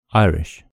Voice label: "irish", en